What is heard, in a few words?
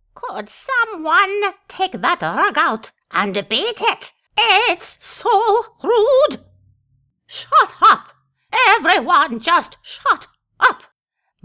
dark elf voice auditions; voice acting